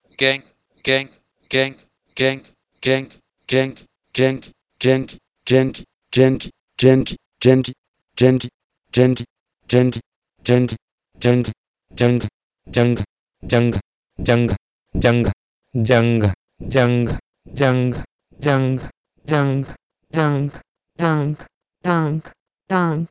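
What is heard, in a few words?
Proto-Indo-European ghengh to Urdu taang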